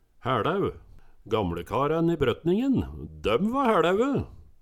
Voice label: hæLæu, Numedalsmål

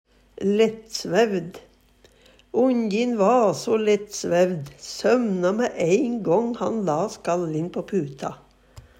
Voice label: lettsvevd, Numedalsmål; lettsvævd; Adjektiv

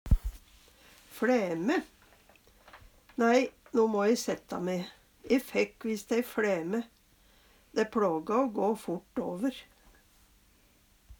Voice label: "fLæme", Numedalsmål; Nei, no må e sætta me. E fækk visst ei fLæme. Dæ plågå o gå fort åver.

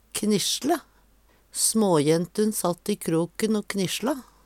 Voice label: knissjle, Numedalsmål; Verb; jentun satt i kroken o knissjla.; Veggli

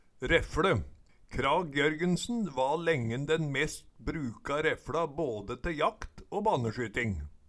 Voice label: refLe, Numedalsmål

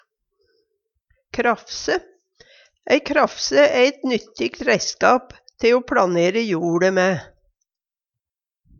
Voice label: krafse, Numedalsmål; Substantiv hokjønn

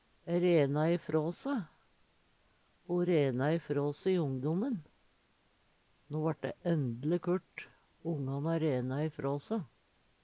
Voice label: rena i frå se, Numedalsmål

